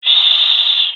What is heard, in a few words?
request quiet, jungle male; player vo; Counter Strike Model Pack; CS:GO